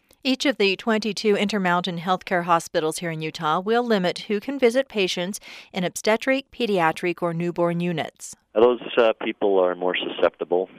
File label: flu narration